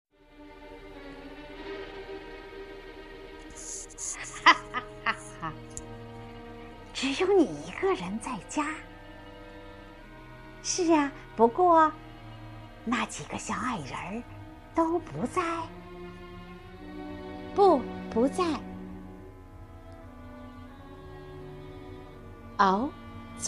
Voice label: 白雪公主 和 皇后 的 双配音

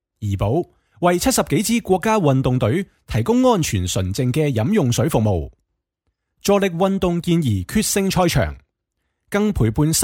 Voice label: 粤语; 怡宝

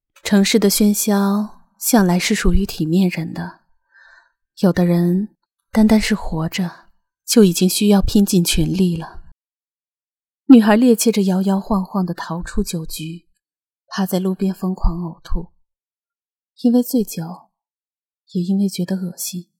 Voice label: 小说旁白

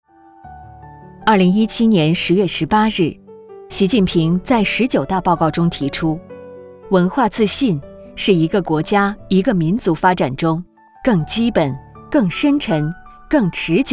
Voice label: 宣传片沉稳

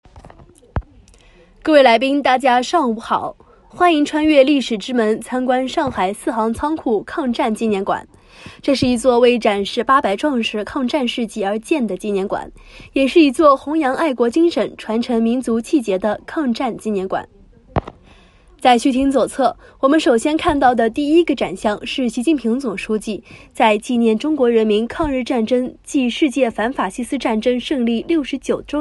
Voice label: 宣传讲解介绍

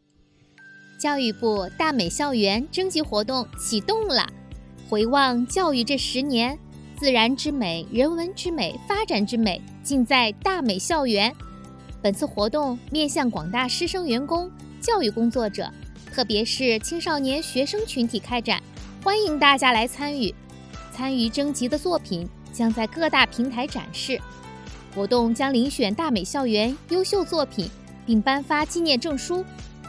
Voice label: 活动宣传青春活泼

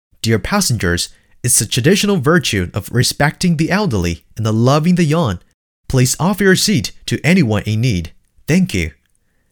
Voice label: 公交播报英文; 潍坊市

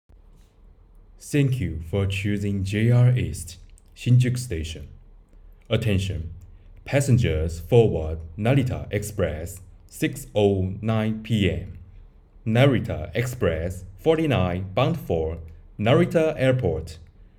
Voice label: 车站站内广播英语; 新宿

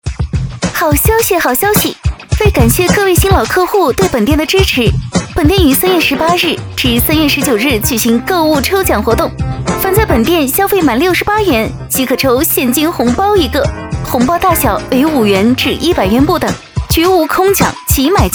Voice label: 激情 促销类 店铺 开业

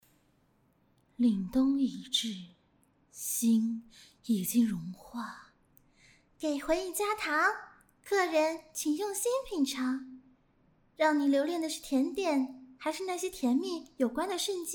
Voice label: 游戏角色试音; 王者

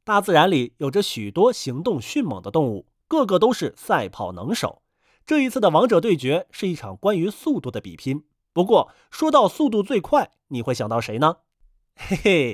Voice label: 动画课程; 正月三白

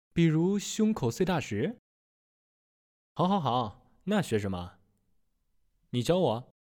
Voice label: 青年角色音

